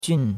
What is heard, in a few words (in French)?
"jun4", chinois; Cours-de-Chinois